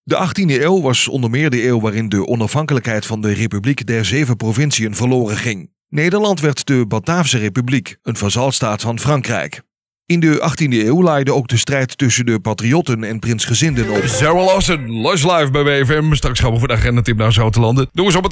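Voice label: Dutch, male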